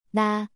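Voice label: "나", Korean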